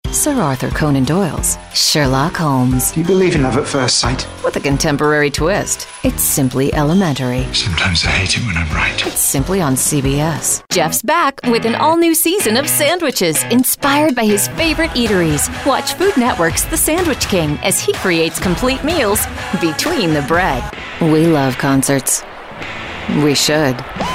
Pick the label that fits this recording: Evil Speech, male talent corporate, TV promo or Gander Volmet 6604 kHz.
TV promo